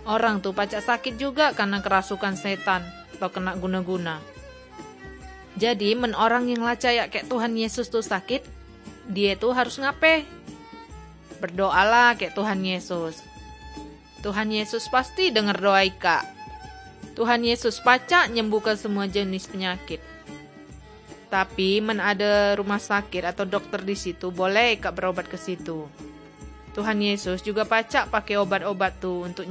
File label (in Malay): kabar baik good news malay bangka